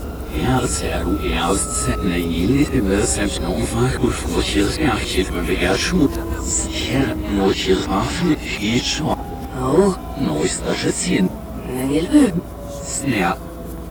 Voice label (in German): Durchsage rückwärts